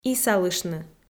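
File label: "исалыштны", Komi, female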